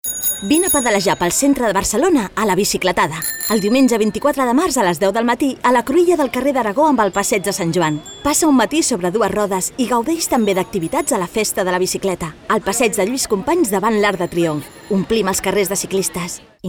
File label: female catalan event comercial; ideasonora